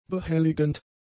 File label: "behelligend", German; adjectives